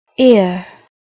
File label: "ear", English